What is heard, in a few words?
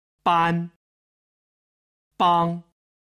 "ban" "bang", Chinese